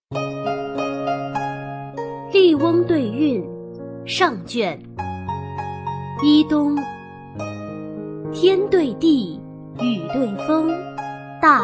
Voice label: 女 有声读物

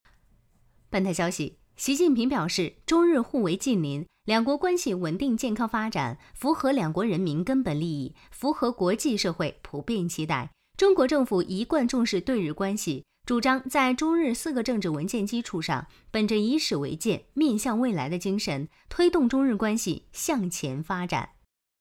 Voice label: 女9号新闻 新闻

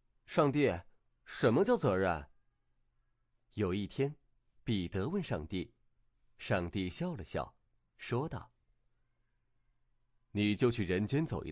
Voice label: 男6号儿童故事读物; 责任

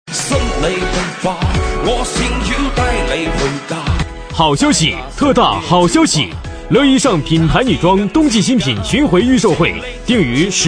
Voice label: 男66号促销; 乐伊尚品牌女装

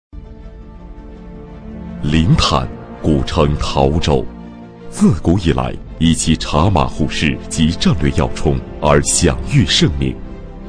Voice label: 男 专题 大气; 临潭县

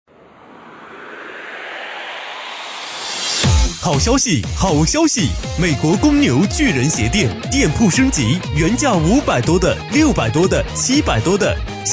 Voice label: 男 广告 鞋

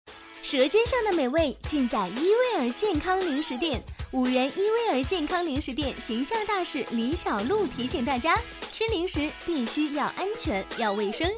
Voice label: 女9号广告; 伊味儿

